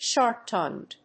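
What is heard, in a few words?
"sharp-tongued", English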